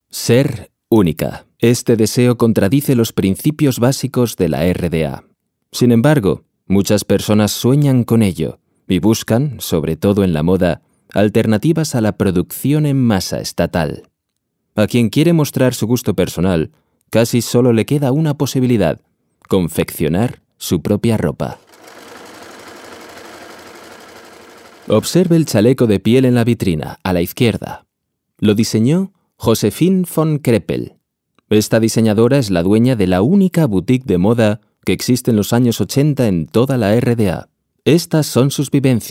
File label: audioguide